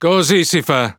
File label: Speech Quick Commands Good Game, IT; World Of Warships